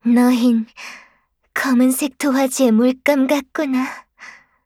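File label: kill Player, Voice Line, Korean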